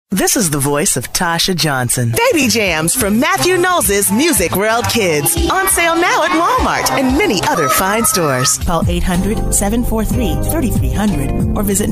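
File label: Female Commercial, voiceover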